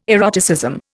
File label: "eroticism", Indian